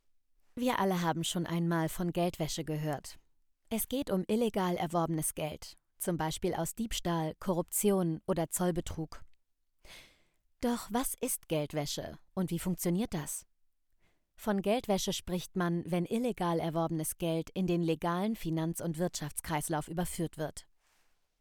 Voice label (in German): Doku Geldwaesche; LOFT VOICES